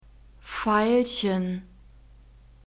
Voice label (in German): "veilchen", German; nouns gender feminine